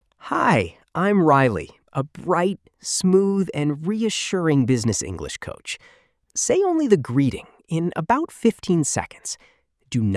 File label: customer call, russian; learn russian